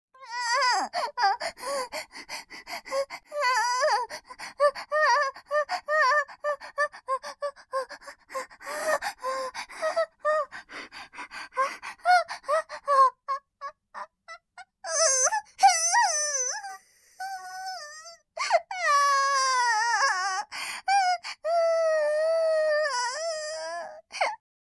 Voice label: 絶頂まで, ASMR; イクたびにわけて

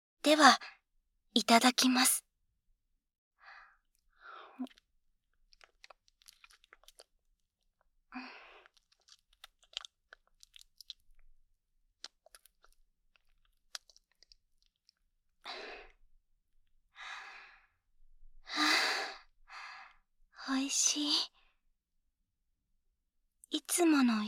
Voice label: 右 声のみ

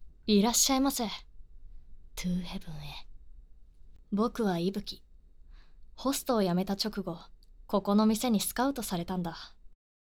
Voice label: 挨拶, ASMR

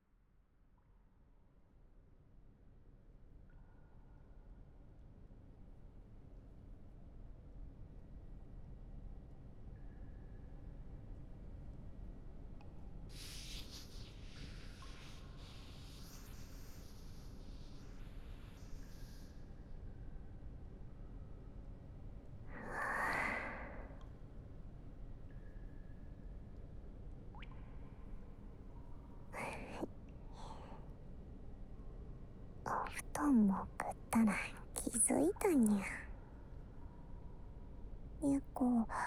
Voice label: おねんね 睡眠 安眠導入, ASMR

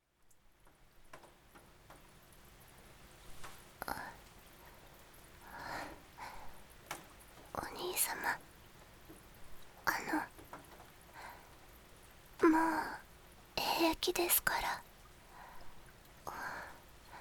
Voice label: 雨降りバージョン, ASMR; 田舎の妹の「何でも言う事聞く券」その２

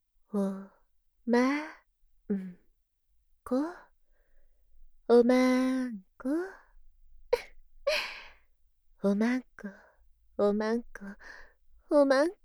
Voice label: おま○こ はにかみ

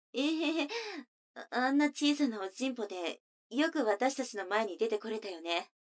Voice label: 女の子 台詞, ASMR

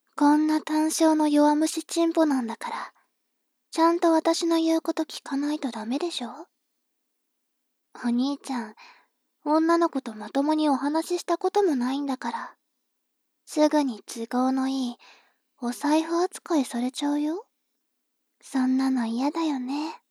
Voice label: 妹 台詞

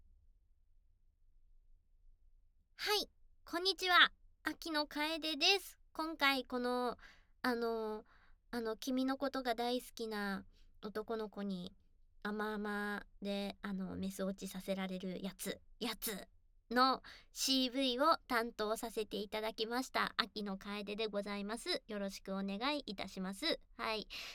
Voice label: フリートーク, ASMR

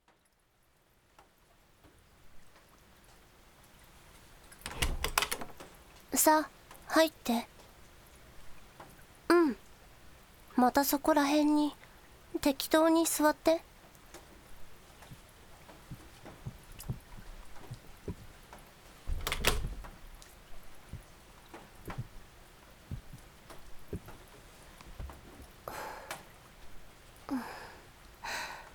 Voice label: 雨降りバージョン, ASMR; 田舎の幼馴染はからかい上手 第2話

